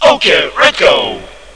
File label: agent voice